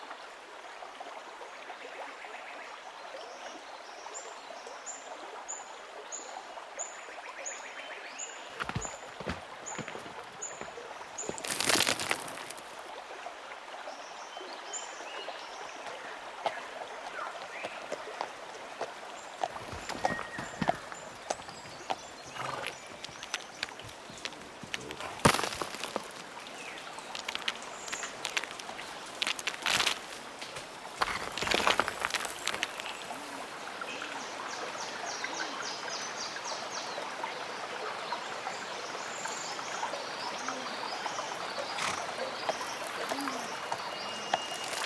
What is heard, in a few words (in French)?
Argentine